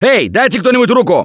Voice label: Ledge Hang Start, russian, Survivor Voice; Gambler

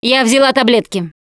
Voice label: Take Pills, russian; Producer

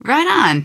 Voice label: answer, female; npc; Garry's Mod; hl2

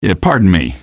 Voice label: pardon me, male; npc; Garry's Mod; hl2

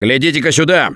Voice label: look here, russian; Gambler

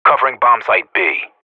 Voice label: radio bot guarding b, professional; player vo

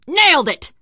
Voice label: kill confirmation, teengirl, voice; Left 4 Dead 2

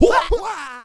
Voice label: Asian Ship Vox; Warlords; Units

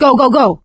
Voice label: emphatic go, survivor voice; Left 4 Dead 2; producer

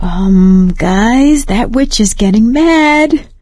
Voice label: witch getting angry, survivor voice; Left 4 Dead 2; producer

